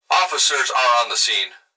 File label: Emergency Human Male Voice Police Dispatch Officers Are On The Scene; emergency effects